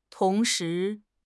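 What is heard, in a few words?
"tong2 shi2", Mandarin Chinese; HSK4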